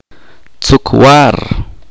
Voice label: "recto", chorti

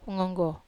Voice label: "ngonggo", Wamesa

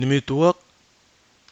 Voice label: "nimituak", Nafe (Kwamera); n. high prestige yam

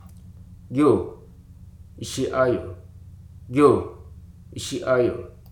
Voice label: "hop", Hruso

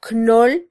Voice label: "knol", mam

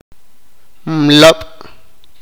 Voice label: "huevo", sipakapense

